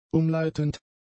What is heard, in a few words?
"umleitend", German; adjectives